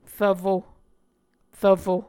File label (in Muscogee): "rvfo", muscogee